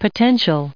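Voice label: "potential", us English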